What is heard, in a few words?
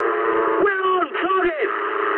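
English near waypoint mood high; War Thunder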